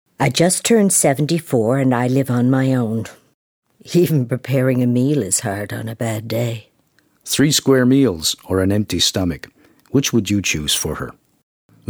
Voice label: radio ad; neglect